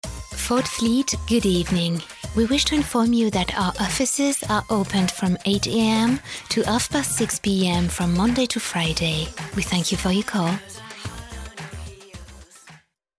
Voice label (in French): répondeur ouverture anglais; FORD